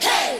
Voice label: "hey", mad